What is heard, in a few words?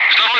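Russian loud; Wingman; DCS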